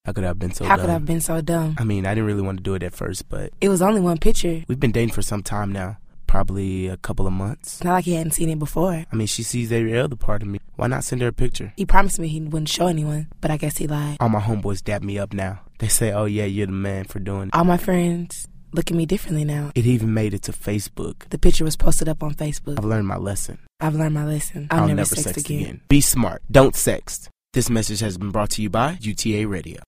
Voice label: Radio PSA